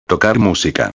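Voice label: "tocar musica", Spanish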